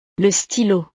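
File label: "le stylo", French; utiles escolares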